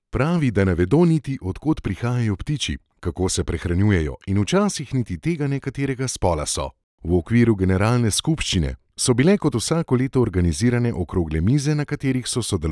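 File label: Slovenian, male, narration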